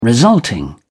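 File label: "resulting", English, British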